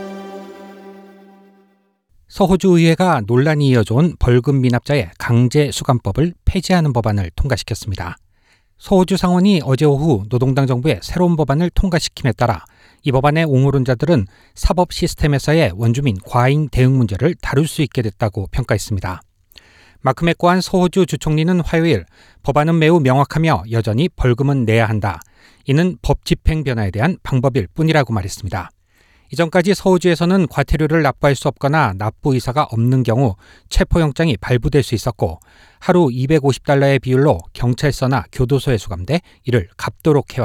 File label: korean; SBS Korean; 서호주, 벌금 미납자 강제 수감 법 폐지